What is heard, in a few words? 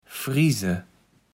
"friese", Kaevels Platt